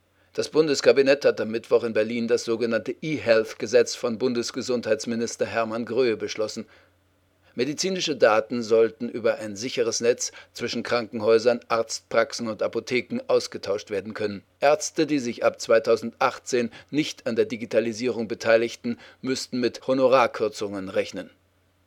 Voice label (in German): Sachtext news